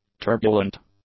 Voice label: "turbulent", English; adjectives